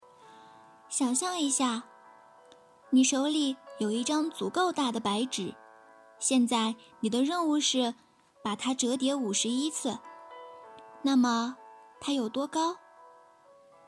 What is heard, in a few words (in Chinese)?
少儿故事